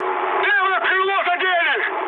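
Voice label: Russian left wing mood high, speech; War Thunder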